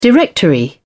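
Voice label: "directory", British English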